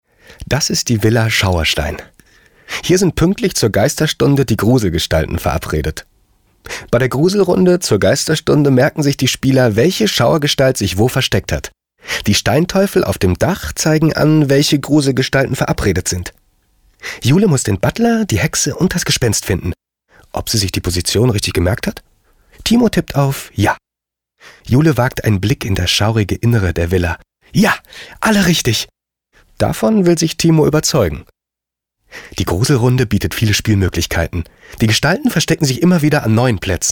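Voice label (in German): Erzählung, deutsch Männer; sprecher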